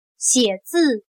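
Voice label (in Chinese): "写字" Mandarin Chinese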